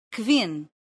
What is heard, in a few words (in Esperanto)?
"kvin", esperanto